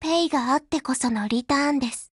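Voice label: OPERATIONOVER, Japanese; GF Alarm; M82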